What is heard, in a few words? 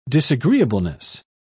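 "disagreeableness", British English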